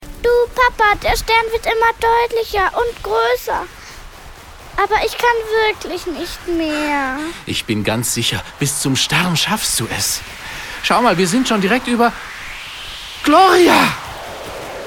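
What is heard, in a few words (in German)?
Hörspielszene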